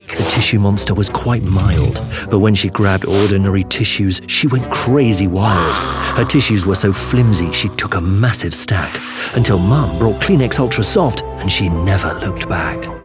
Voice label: male british voiceover commercial